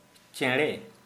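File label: "bird", Santali, male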